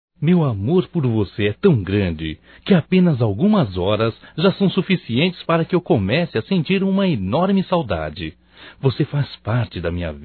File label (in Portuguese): SAUDADES NAMORADOS, Só Voz, masc; Telemensagens; Telemensagens 2017